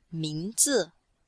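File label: "ming2zi4", Chinese, female; Cours de Chinois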